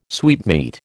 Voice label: "sweetmeat", American